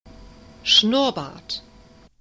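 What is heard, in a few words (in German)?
"schnurrbart", German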